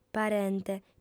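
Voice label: "parente", Sardinian, female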